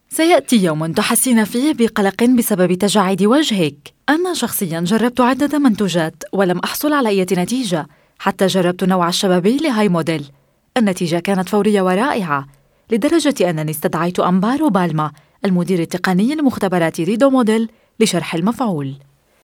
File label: arabic female